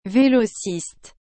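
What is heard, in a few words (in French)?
"velociste", French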